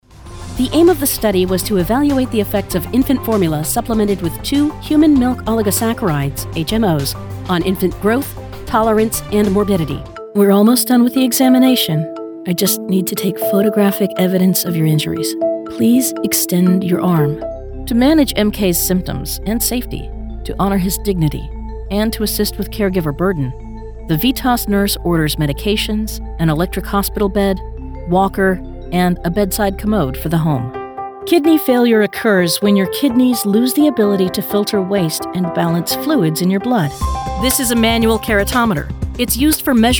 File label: english american others